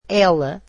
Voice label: portuguese she